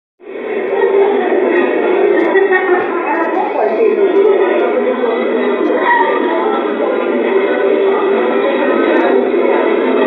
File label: people talking